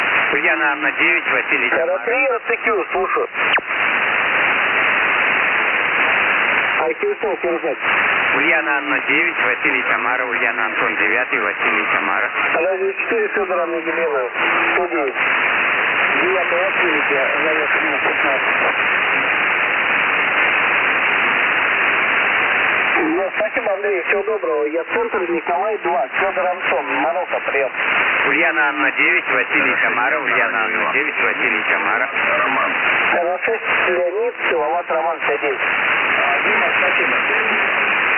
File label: 40m SSB